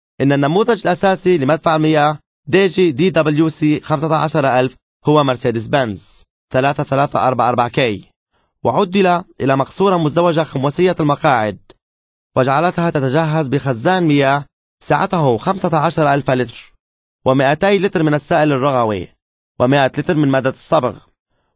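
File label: Arabic, male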